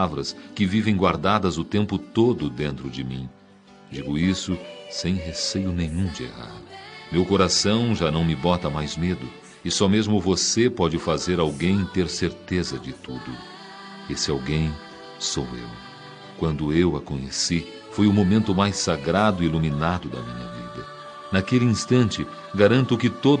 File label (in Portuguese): homenagem romantica para esposa, voz masculina